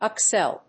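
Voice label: "accel.", English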